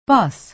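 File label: "bus", American English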